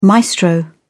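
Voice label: "maestro", British English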